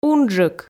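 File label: "унджык", Komi, female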